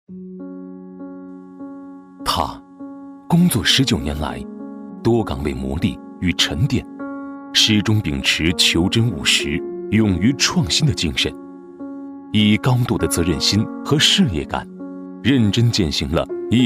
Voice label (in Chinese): 男 人物专题 厚重质感; 抑扬顿挫; 质感磁性